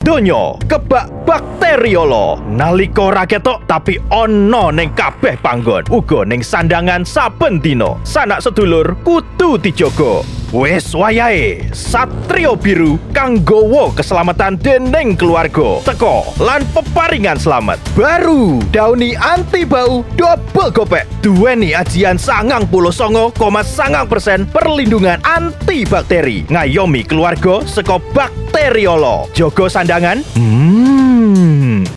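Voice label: Bahasa Indonesia Radio Spot; Downy Jateng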